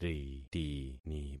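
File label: "ritini", concatenated syllables; Affixing experiment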